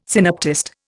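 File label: "synoptist", Indian English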